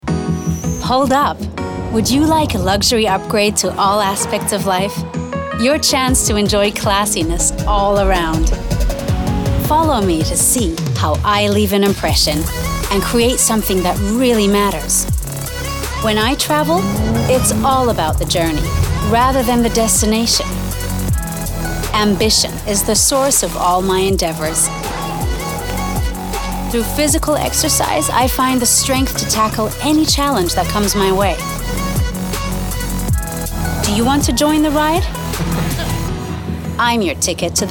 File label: commercial vo; Audi